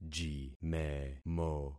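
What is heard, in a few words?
"jimemo", concatenated syllables; Affixing experiment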